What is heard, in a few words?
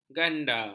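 "ganda", Malay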